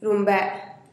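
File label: "rumbee", Teotitlán del Valle Zapotec; conocer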